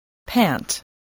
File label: "pant", English voice